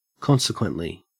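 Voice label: "consequently", Australian English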